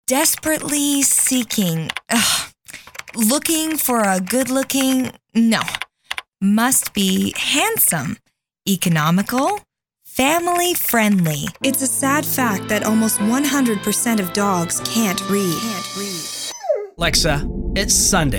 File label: English Commercial Reel